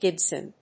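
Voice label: "gibson", English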